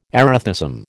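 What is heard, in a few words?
"erethism", American English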